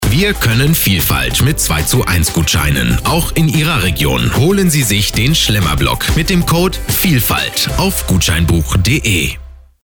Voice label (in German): Radiospot; Schlemmerblock; Reminder